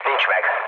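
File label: Hungarian bomb failure mood low, speech; War Thunder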